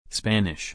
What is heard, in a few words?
Spanish